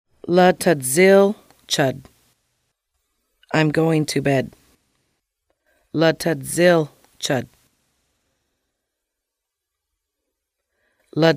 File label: i am going to bed, Lushootseed